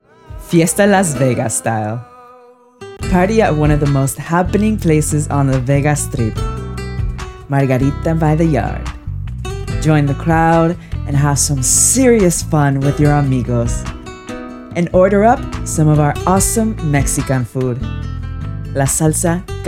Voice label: English Spanish-Latin, English (Spanish-Latin Accent), Female